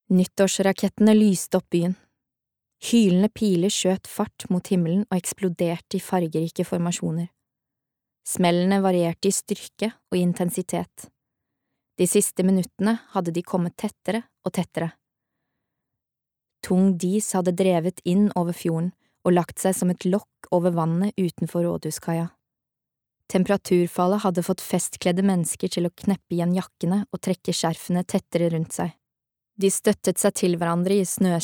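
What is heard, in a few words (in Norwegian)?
lydbok, Norwegian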